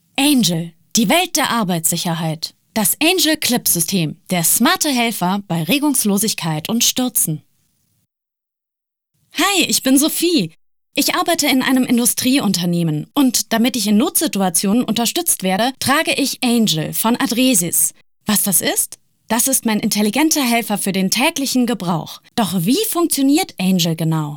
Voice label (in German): Erklärvideo deutsch; Angel Clip Genius